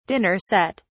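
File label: "dinner set", British English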